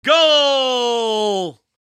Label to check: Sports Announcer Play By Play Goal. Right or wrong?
Right